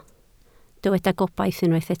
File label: "gobaith", welsh